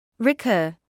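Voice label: "recur", British English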